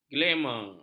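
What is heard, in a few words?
"glamor", Malay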